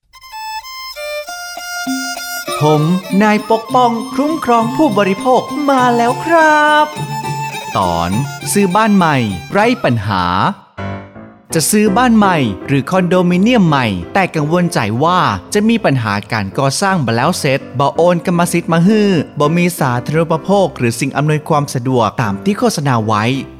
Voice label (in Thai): สปอตวิทยุ สคบ. ภาคเหนือ